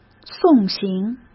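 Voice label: "送行", Mandarin Chinese; hsk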